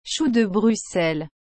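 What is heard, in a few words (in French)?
"chou de Bruxelles", French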